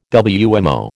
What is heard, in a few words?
"WMO", American